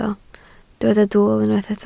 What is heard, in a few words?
"dwl", Welsh